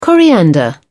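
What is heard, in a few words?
"coriander", British English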